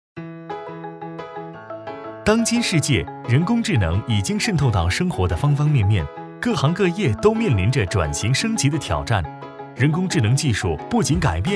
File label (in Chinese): 男,中文 MG; 有光科技